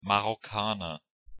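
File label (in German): "marokkaner", German; pronunciation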